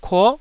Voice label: "kuo9", Taiwanese syllable

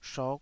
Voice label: "shok5", taiwanese Syllables

